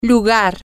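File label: place, Spanish, female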